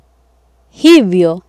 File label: "hivyo", swahili